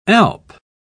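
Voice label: "alp", British English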